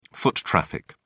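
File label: "foot traffic", British English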